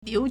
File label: "liu2", Chinese